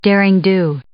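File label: "derring-do", English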